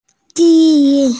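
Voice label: "ji1", Mandarin Chinese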